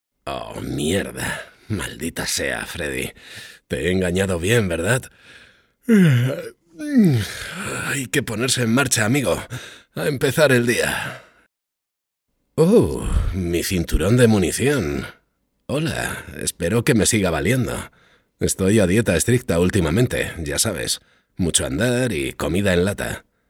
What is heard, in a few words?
character; Arizona